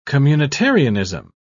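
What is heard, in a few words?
"communitarianism", British English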